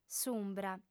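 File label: "s'umbra", Sardinian, female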